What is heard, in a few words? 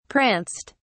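"pranced", English, British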